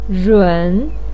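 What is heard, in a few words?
"run", Mandarin Chinese, third tone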